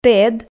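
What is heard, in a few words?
"тэд", Komi, female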